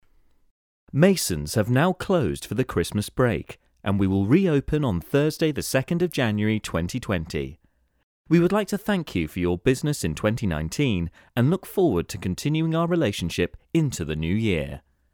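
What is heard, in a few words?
English UK Male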